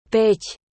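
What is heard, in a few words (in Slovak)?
"pat", Slovak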